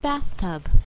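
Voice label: "bathtub", English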